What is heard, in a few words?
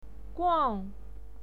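"guang", Mandarin Chinese pinyin, fourth tone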